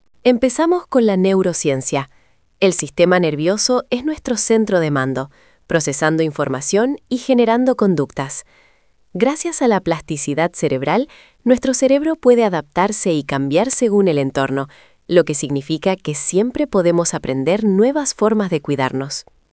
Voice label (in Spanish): locucion